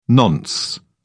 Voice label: "nonce", en uk